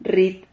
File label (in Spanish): "rrit", Silabas